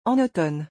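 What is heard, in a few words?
"en automne", French; vocabulaire; le lexique des vacances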